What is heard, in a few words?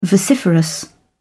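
"vociferous", British English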